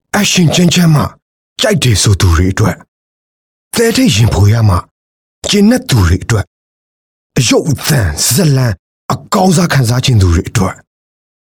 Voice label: m Burmese Movie Trailer